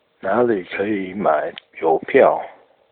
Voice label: where can i buy stamps mandarin

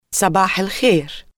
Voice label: good morning arabic

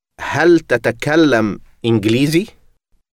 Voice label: speak english arabic

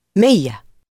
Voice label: number hundred Arabic

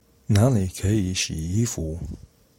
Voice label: where can i do laundry Mandarin

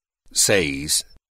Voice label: number six spanish

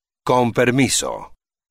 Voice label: excuse me spanish

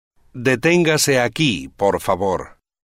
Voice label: please stop here spanish

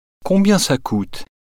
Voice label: how much does it cost french

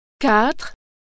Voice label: number four French

